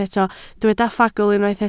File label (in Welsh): "ffagl", Welsh